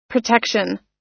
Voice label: "protection", us

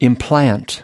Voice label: "implant", us

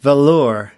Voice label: "velour", us

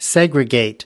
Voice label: "segregate", us

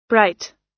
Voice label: "bright" US

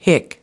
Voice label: "hick", us

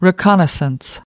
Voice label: "reconnaissance", us

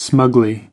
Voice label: "smugly", us

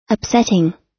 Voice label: "upsetting", us

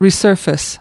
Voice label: "resurface", us

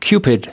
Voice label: "cupid", us

